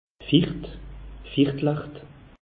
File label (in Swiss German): "ficht", alsace; meteo